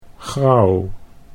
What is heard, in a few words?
"grauw", Dutch